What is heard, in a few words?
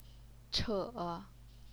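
"che", third tone, Chinese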